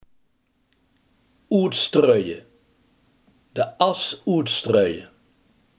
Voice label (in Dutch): "uitstrooien", limburgse